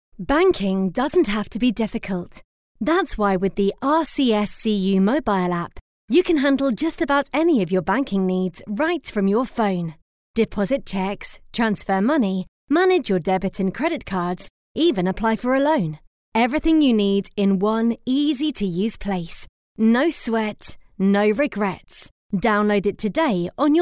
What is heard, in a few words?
Professional